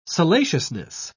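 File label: "salaciousness", British English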